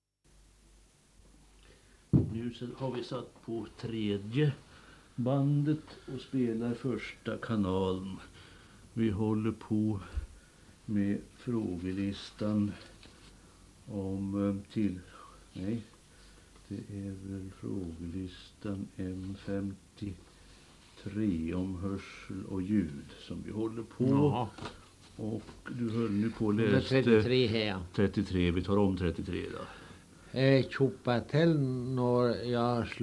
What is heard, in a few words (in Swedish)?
Ovansjö, dialekter; Svenska sagor; Folkminnen